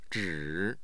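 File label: "zhi3", Mandarin Chinese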